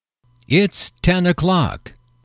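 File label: "it is ten o'clock", English, male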